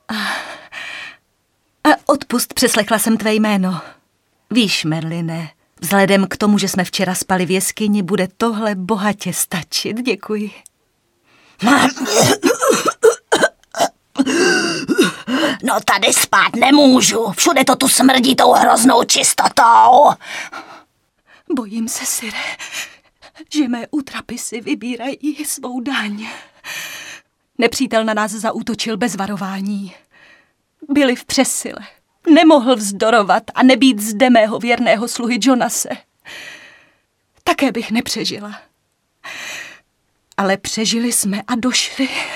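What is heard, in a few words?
female Czech drama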